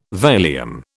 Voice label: "Valium", British